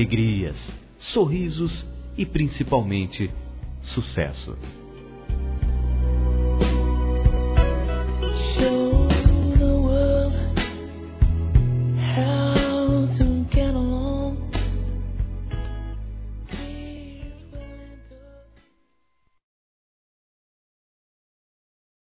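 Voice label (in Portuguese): VOCÊ CONSEGUIU, masculino; Telemensagens; Telemensagens 2017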